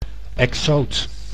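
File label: "exoot", Dutch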